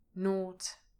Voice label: "nought", English pronunciation